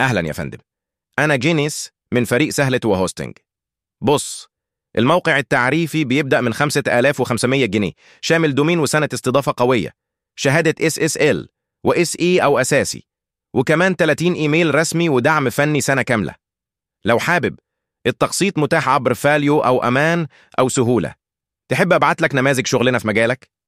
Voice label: voice sample male